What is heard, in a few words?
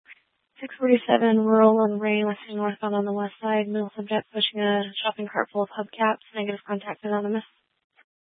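Tempe Police Department, scanner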